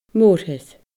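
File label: "morthir", Scottish Gaelic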